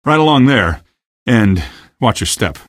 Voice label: exit watch step, male; Source Engine; video game; coast; barn